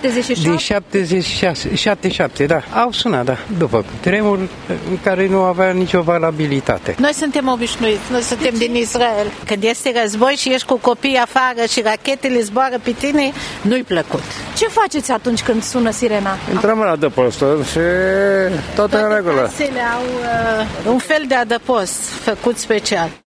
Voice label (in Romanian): vox alarma Centrul Vechi